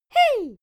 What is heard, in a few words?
child "hey"